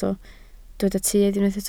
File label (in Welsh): "tuedd", Welsh